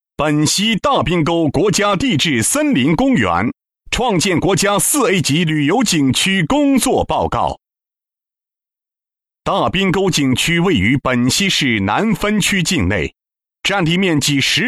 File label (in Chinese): 男 专题; 大冰沟